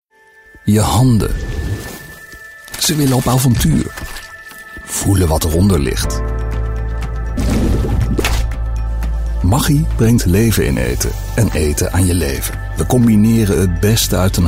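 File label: Dutch, Commercial; GoLocalise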